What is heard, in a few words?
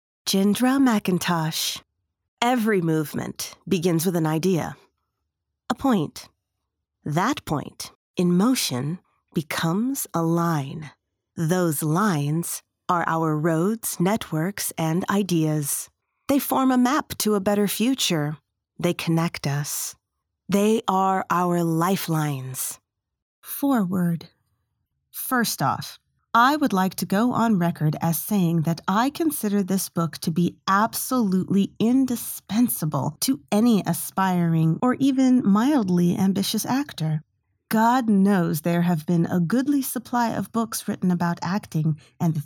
Audiobook